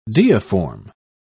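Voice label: "deiform", British English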